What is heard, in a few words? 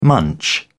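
"munch", British English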